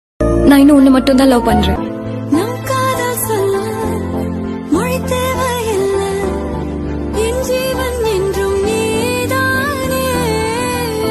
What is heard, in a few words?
female love dialogue